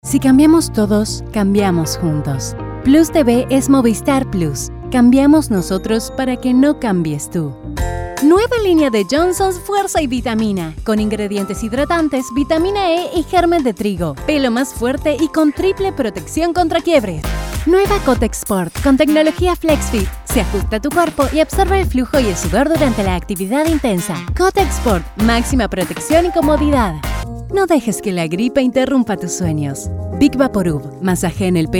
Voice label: 30s 40s Female Spanish South American Accent